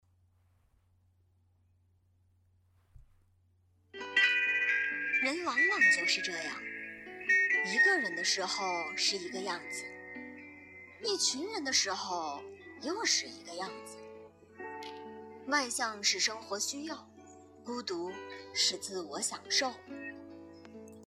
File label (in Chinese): 配音